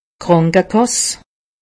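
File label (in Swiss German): "krankekass", Alsatian; medecine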